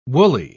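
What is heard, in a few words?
"wooly", British English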